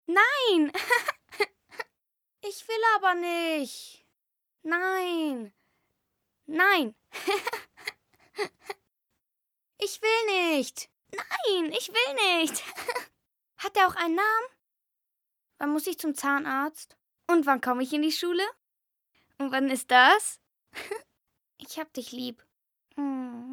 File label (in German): synchron kleines maedchen